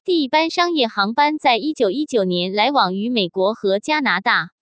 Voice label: mandarin chinese parametric